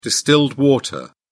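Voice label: "distilled water", British English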